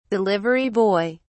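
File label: "delivery boy", British English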